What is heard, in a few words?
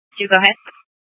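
Incident Tactical, scanner audio recording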